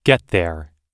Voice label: "get there", US English